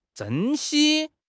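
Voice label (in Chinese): "珍稀", Chinese; HSK6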